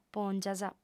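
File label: "pòngias", female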